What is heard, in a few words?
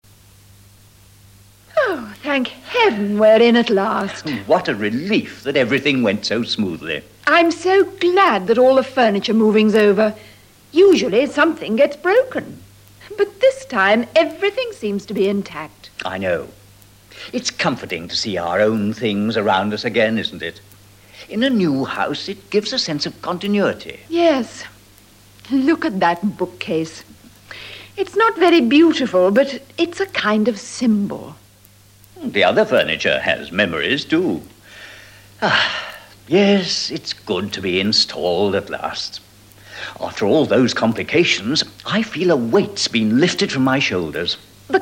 dialog; Conversaciones en Ingles; Nivel Avanzado